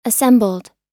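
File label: "assembled" US English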